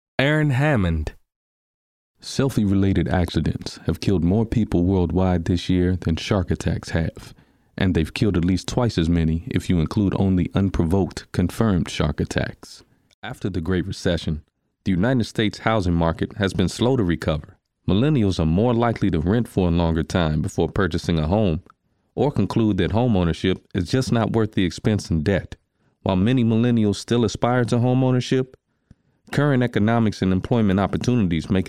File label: eLearn